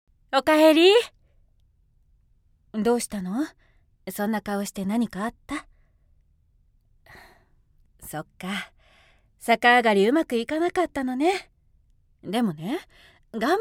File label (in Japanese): 旅番組(爽やか)